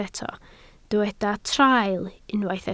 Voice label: "traul", Welsh